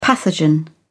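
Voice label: "pathogen", British English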